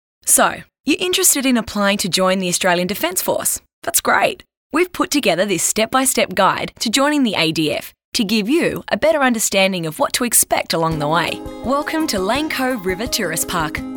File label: corporate